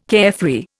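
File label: "carefree", Indian English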